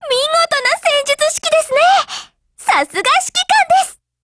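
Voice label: win, Japanese; GF Alarm; Carcano1891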